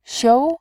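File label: "xiu", Mandarin Chinese, tone 2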